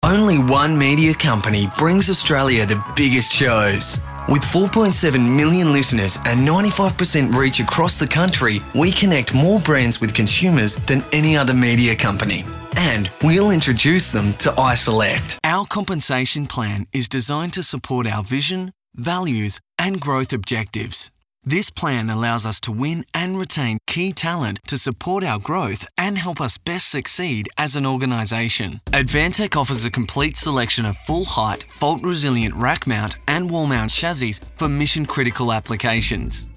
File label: corporate